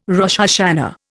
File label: "Rosh Hashana", Indian